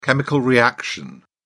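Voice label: "chemical reaction", British English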